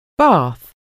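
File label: "bath", English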